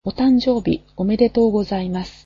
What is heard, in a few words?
Happy birthday, japanese